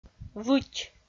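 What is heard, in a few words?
"выть", Komi, female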